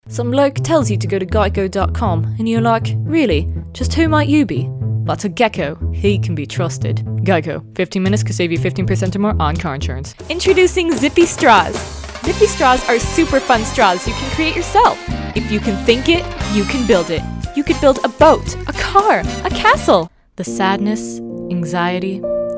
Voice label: commercial